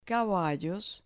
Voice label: "caballos" Spanish